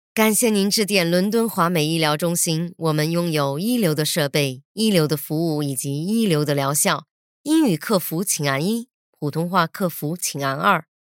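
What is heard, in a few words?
chinese mandarin interactive voice response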